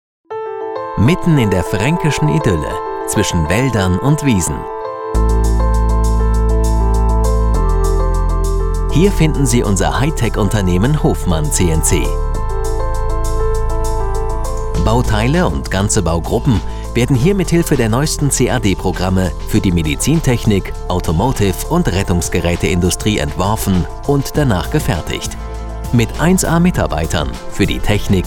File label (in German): Sprecher imagefilm; mittelstand